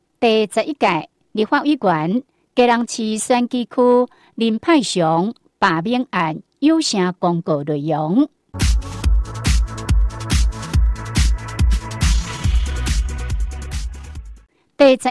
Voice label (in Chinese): 臺語; 第11屆立法委員(基隆市選舉區)林沛祥罷免案有聲公告內容